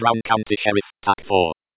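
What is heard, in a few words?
BC Sheriff Tac 4, Computer Voice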